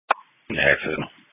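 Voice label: Police Patrol (Dispatch)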